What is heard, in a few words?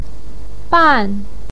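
"ban4", Mandarin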